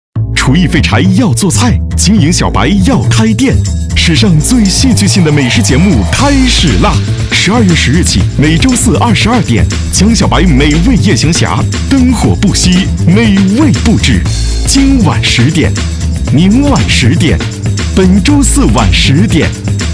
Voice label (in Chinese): 男 广告; 浙江卫视片花美味夜行侠